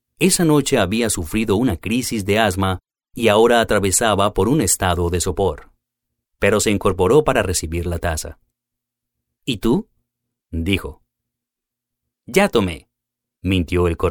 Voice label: spanish latin american audiobooks